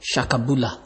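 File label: "shakabula", Nigerian Pidgin, male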